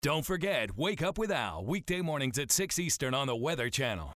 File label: Generic Tagline; Weatherscan; Unused